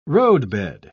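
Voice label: "roadbed", British English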